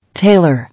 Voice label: "Tailor", English

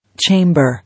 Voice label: "Chamber", English

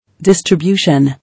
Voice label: "Distribution" English

